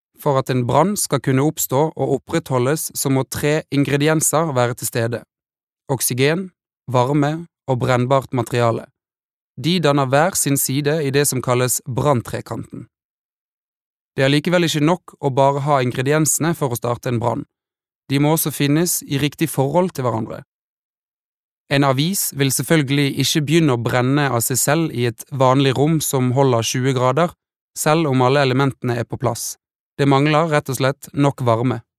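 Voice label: Norwegian elearning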